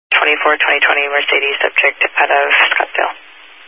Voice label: Highway Patrol, Phoenix Metro East Area, scanner